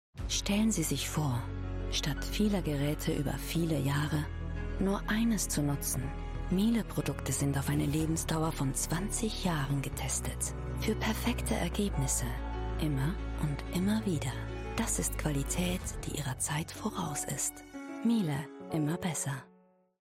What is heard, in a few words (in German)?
TV Spot; Miele Kampagne 2021